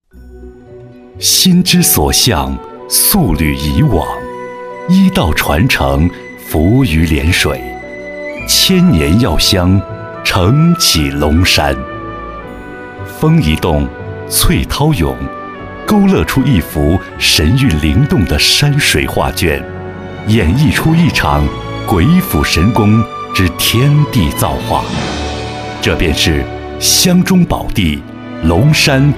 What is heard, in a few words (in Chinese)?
纪录片 风格; 龙山